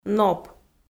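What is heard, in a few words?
"ноп", Komi-Zyrian, female; audio dict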